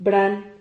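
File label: "bran", Spanish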